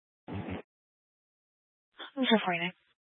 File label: Sheriff Dispatch; Mesa County